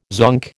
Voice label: "zonk", American